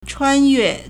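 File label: "chuānyuè", Chinese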